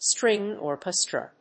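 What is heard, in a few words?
"string orchestra", English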